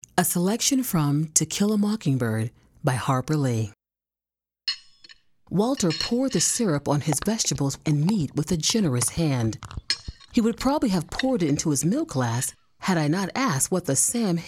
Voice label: audiobook; mockingbird